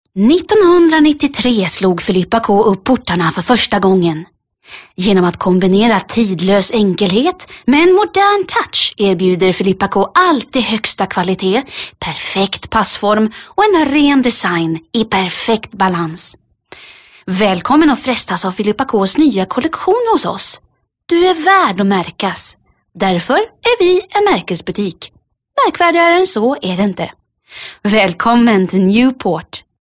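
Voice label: Swedish, female, commercial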